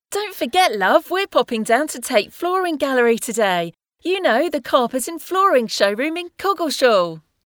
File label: Conversational